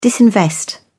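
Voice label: "disinvest", English, British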